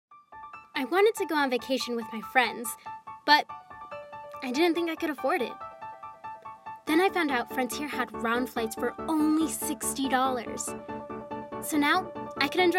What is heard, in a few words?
vo demo reel commerical biligual